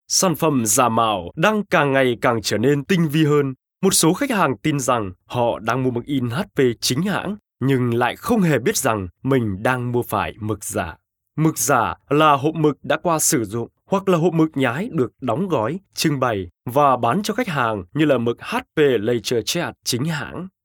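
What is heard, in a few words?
Vietnamese informative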